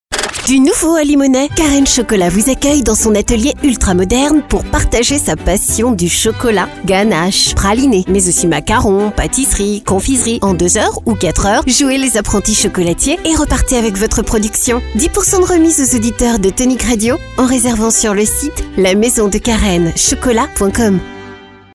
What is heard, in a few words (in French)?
Spot Radio